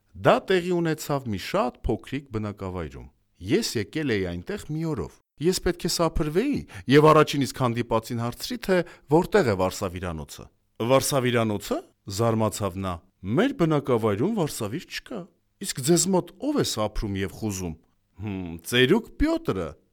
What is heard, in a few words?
Armenian Native